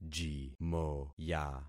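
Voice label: "jimoya", concatenated syllables; Affixing experiment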